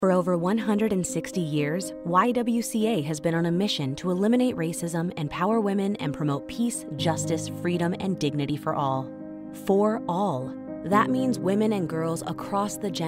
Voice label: Political Explainer Caring Conversational Engaging Female English; NonProfit